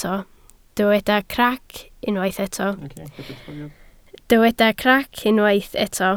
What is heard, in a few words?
"crac", Welsh